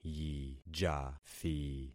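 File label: "yijathi", concatenated syllables; Affixing experiment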